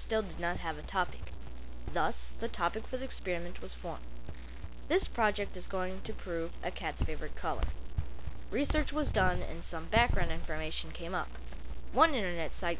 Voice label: abstract narr

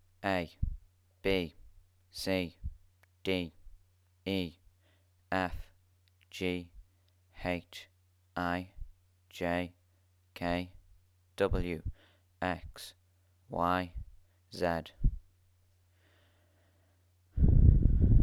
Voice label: alphabet, Accent

